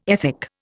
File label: "ethic", Indian